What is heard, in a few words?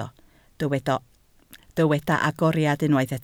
"agoriad", Welsh